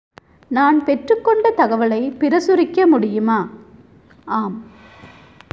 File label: Tamil